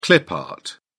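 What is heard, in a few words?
"clip art", British English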